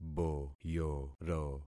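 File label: "boyoro", concatenated syllables; Affixing experiment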